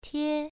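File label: "tie" Mandarin Chinese, first tone, pronunciation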